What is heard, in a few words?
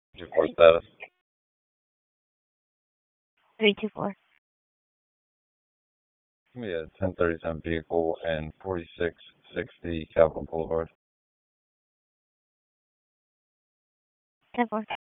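Police Northeast District Disp, radio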